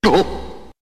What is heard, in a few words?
asian oops; ddeuheog